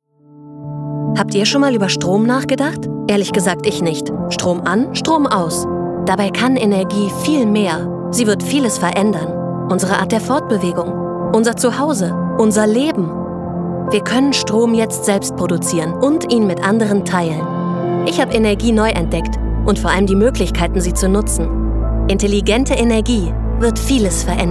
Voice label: Werbung; Energie

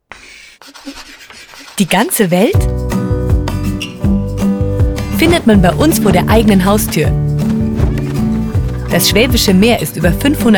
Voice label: Imagefilm; Nabu

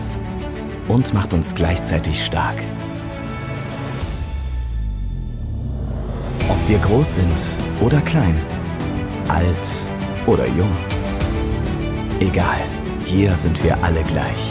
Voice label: Imagefilm Sport; Mainova

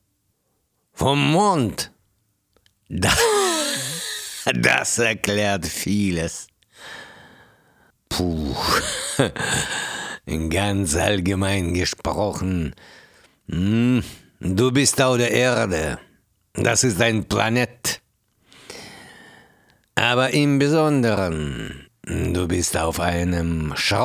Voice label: Literatur Märchen Ratte De

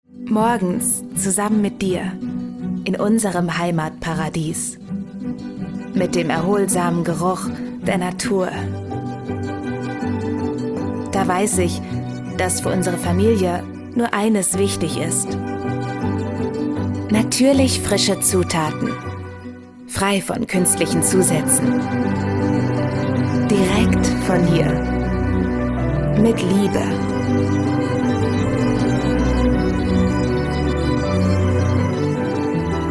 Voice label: Werbung Naturkost; Minkas Naturkost

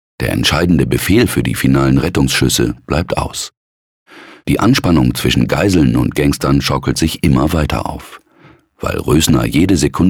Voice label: Hörspiel; Geiseldrama Gladbeck; RND

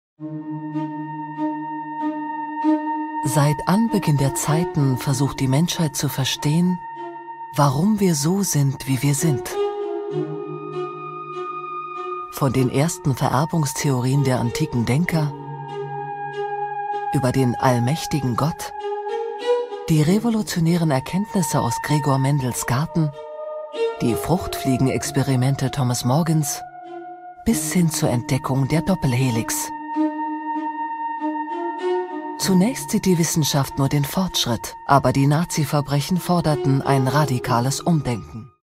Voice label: TV Doku; ARTE; Das Gen

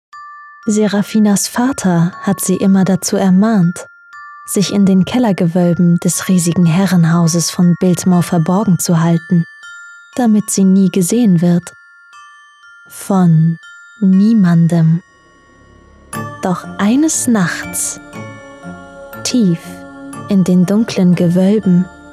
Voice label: Buchtrailer; Serafina

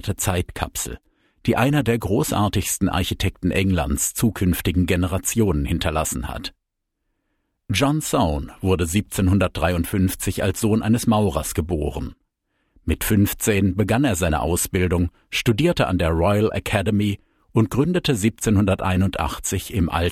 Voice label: Audioguide Museum Deutsch

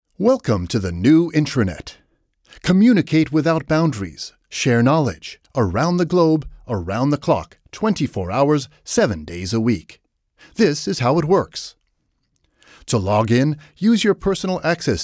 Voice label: US Englisch eLearning

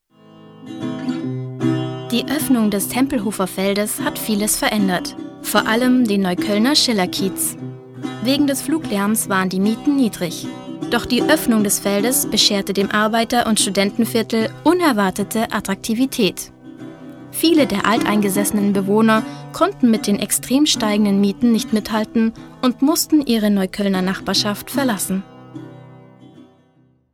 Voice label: TV Reportage; Tempelhofer Feld

